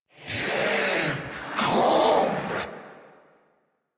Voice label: Surprised; Dialog; en; Warframe; Zariman; Zariman Wraith